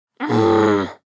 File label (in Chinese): 生气 女